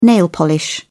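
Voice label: "nail polish", British English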